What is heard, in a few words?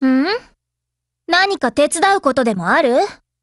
HELLO JP; MP7